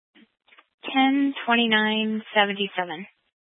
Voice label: Police Department Dispatch; Grand Junction